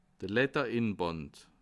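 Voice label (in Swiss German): "ledderinband", Bas Rhin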